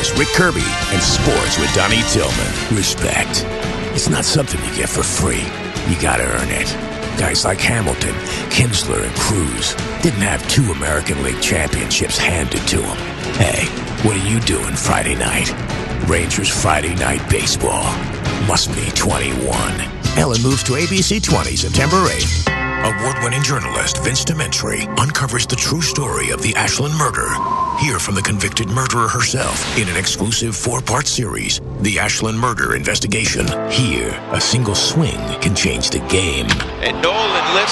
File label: News Promo